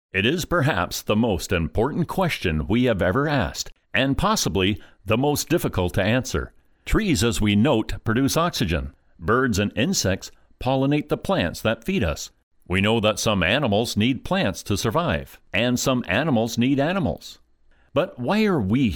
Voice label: male american voice over narrative, english american